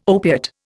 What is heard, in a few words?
"opiate", Indian